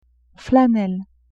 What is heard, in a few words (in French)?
"flanelle", French; vetements